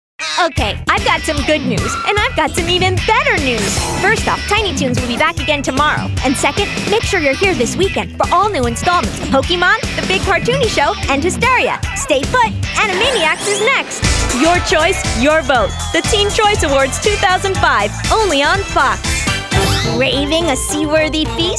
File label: promos